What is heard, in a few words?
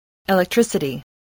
"electricity", spelling